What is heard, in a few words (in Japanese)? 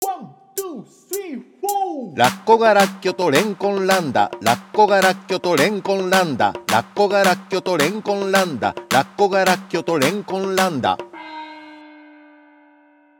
早口言葉リズム（中級編）